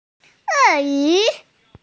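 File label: "e4yu2", Mandarin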